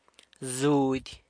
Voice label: "zudy" corte (traditional Zapotec wrap-around skirt), San Lucas Quiaviní Zapotec